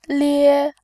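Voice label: "lie1", Mandarin Chinese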